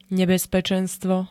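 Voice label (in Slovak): "nebezpečenstvo", Slovak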